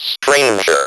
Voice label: "STRANGER", Speak & Spell